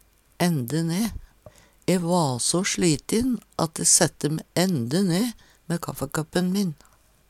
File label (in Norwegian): "ende ne", Numedalsmål